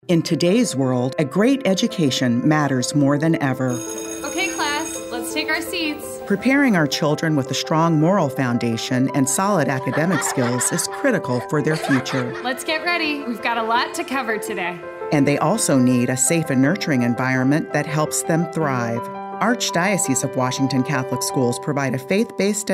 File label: Radio Spot English